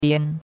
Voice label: "dian1", chinois; Cours de Chinois

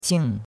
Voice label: "qing4", chinois; Cours de Chinois

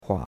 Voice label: "hua3", chinois; Cours-de-Chinois

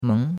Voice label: "meng2", chinois; Cours-de-Chinois

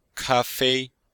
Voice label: "ka1fei1", chinois; Cours-de-Chinois

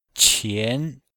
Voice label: "qian2", chinois; Cours de Chinois

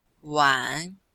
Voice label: "wan3", chinois; Cours de Chinois

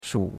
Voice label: "shu3", chinois; Cours de Chinois

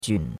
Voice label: "jun3", chinois; Cours de Chinois

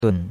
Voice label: "dun3", chinois; Cours de Chinois

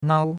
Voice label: "nao1", chinois; Cours-de-Chinois

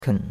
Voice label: "ken3", chinois; Cours-de-Chinois

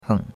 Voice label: "heng3", chinois; Cours-de-Chinois

